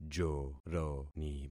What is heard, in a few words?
"joroni", concat syllables; Affixing experiment